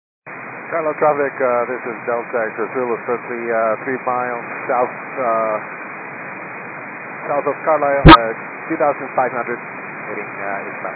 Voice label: 122.925 MHz; scanner radio